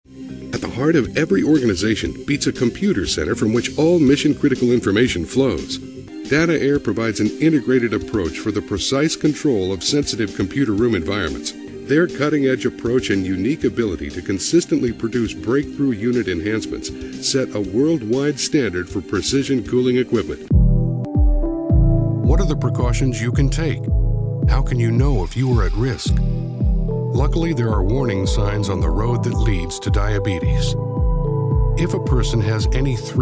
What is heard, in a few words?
Narration